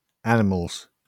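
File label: "animals", British English; pronunciations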